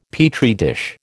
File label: "Petri dish", American